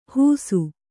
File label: "ಹೂಸು", kannada